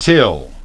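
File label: "till", English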